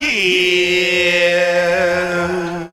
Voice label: "yeah" long, voice